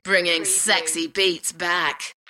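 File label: UK female, voice drop; Bringin sexy beats back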